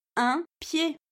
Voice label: "un pied", French